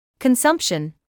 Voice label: "consumption", English